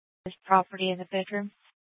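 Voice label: Police Department Dispatch, scanner; Mesa PD; Fiesta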